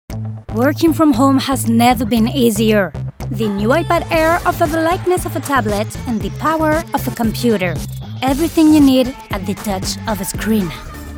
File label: English VO